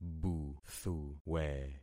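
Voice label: "buthuwe", concatenated syllables; Affixing experiment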